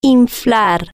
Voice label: "inflar", spanish

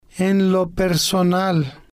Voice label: "en Lo Personal", spanish

